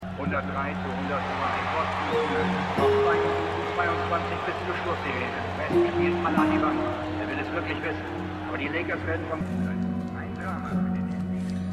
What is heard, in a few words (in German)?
Sportkommentator; Winning Time